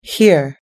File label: "hear", US English